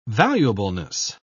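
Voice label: "valuableness", British English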